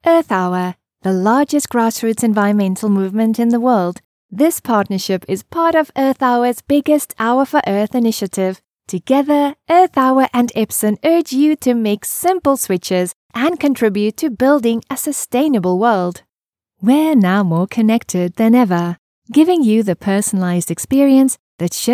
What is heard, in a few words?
english south african commercial; Afrique du Sud